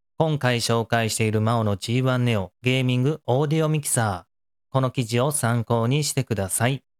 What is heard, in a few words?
maono g1 neo